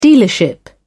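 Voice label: "dealership", British English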